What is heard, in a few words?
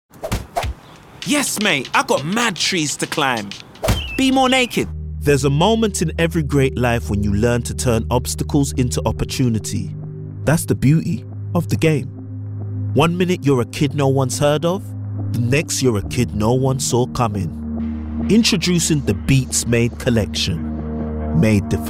Commercial